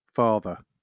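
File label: "father", British English; pronunciations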